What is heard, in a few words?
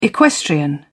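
"equestrian", British English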